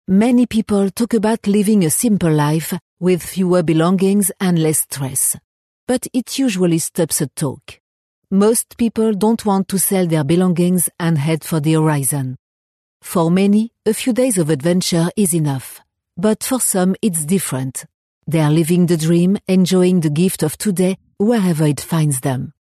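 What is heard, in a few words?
French, female, English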